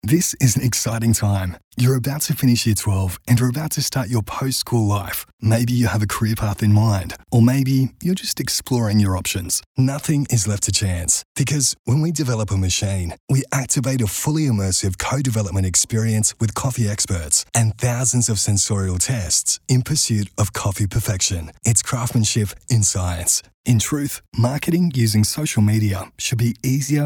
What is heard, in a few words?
corporate